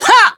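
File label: Voice attack Japanese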